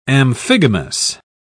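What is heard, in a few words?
"amphigamous", British English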